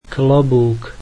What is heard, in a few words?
slovak hat